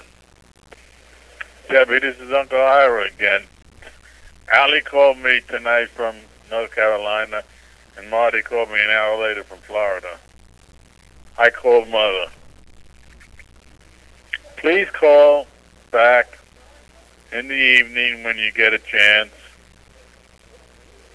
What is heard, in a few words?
calls, answering machine